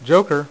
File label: "JOKER", Dealer's Speech